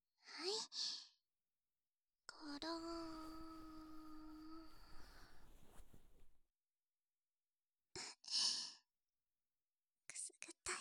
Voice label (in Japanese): 今度は左のお耳ですね（左の耳かき）, ASMR